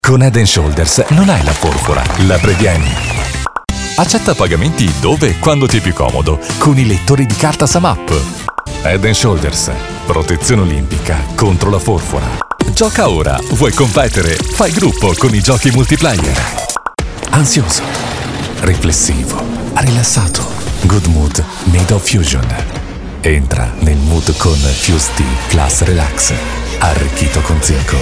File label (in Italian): italiano